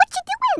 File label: "Stupid", Brooklyn; Worms Armageddon